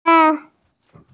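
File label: "gong yuan", Mandarin Chinese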